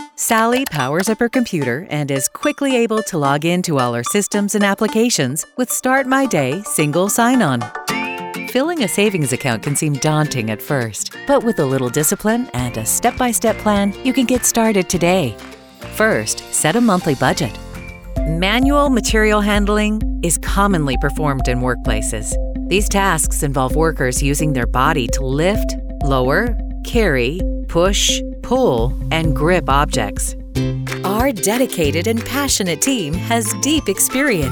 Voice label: Animation